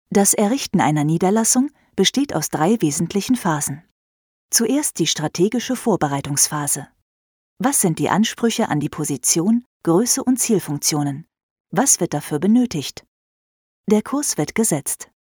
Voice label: e-learn industrie

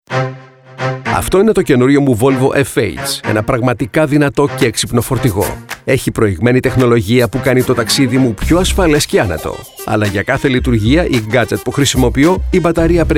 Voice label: Corporates Industrials

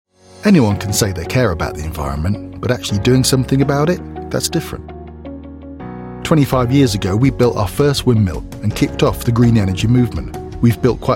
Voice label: commercial

